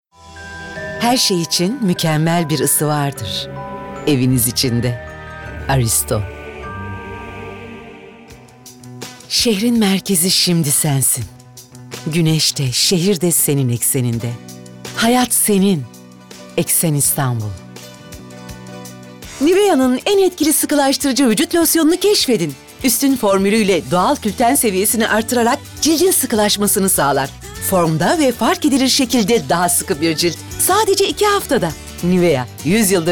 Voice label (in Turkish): REKLAM